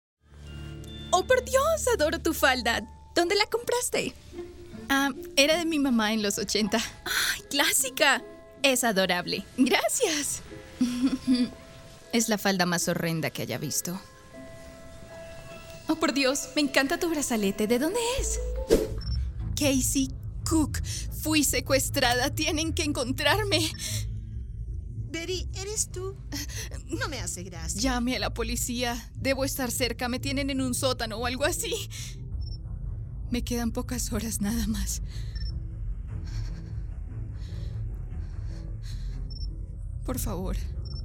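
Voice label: Latin American Spanish Characters; GoLocalise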